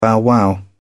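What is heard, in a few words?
"bow-wow", British English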